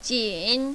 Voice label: "jin3", mandarin, female